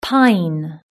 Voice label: "pine", british english